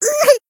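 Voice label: damaged, Japanese; loveli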